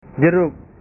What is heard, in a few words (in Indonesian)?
"jeruk", bahasa